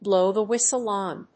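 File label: "blow the whistle on", English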